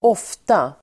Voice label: "ofta", Swedish

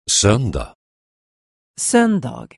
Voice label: "sond", Swedish

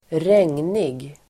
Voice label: "regnig", Swedish

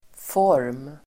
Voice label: "form", Swedish

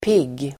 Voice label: "pigg", Swedish

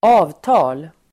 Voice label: "avtal", Swedish

